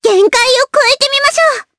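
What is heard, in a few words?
voice, Japanese; Hanus; kingsraid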